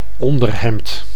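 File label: "onderhemd", Dutch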